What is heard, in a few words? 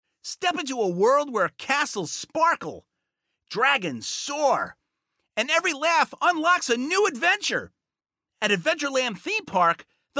Commercial; Adventureland